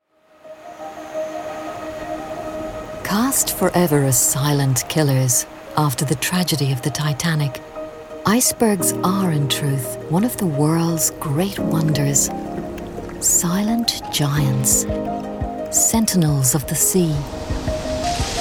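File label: documentary showreel, voiceover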